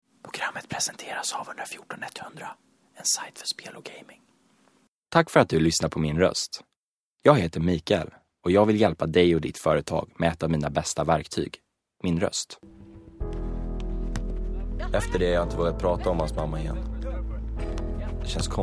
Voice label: Voiceover Swedish

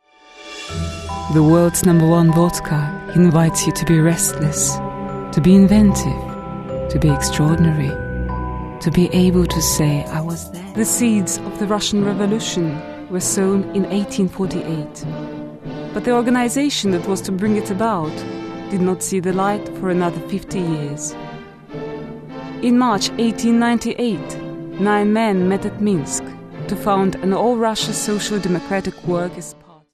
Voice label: Russian English, Female, 20s-40s; Eastern European

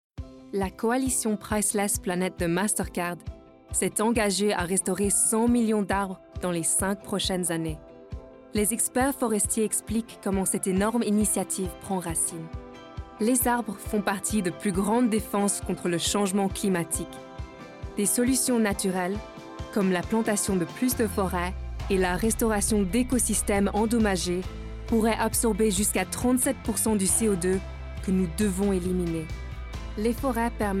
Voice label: French

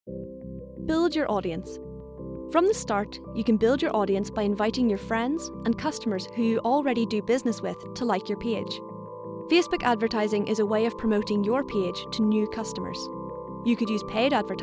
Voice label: Corporate

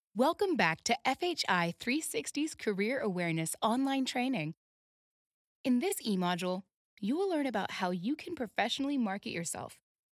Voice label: corporate

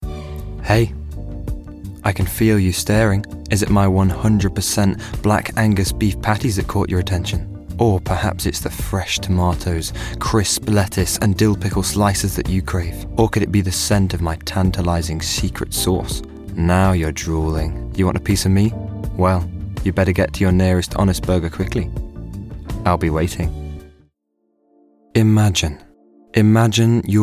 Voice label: Commercial

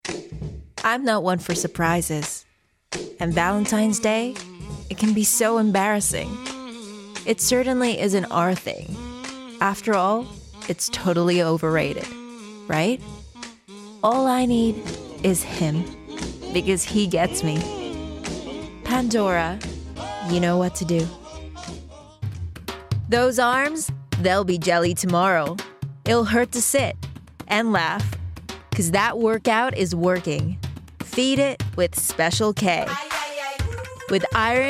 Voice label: US Commercial

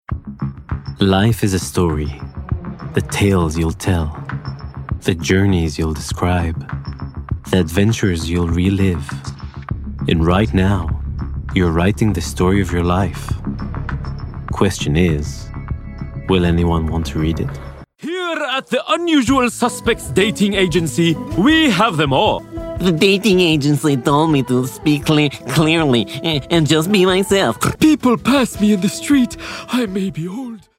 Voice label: English; Hebrew, Middle Eastern, Male, 20s-40s

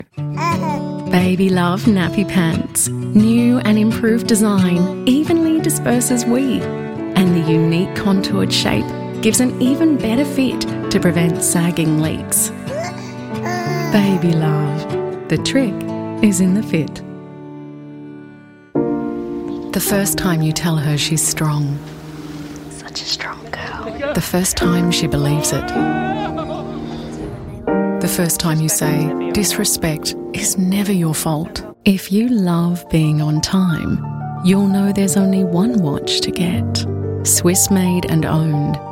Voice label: Commercials

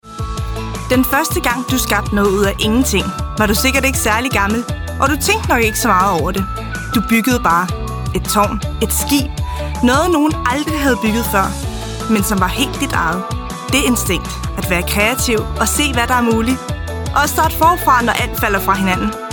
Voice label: Danish

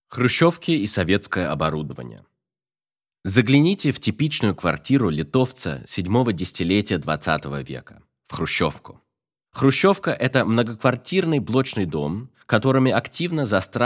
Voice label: Russian

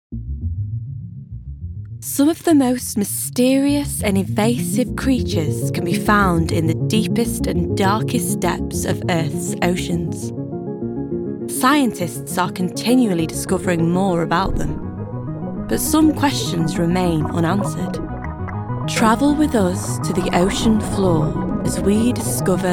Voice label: Narrative

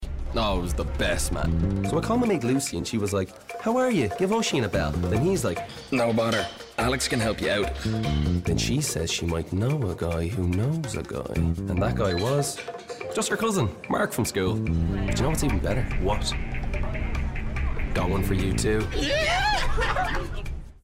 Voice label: commercial

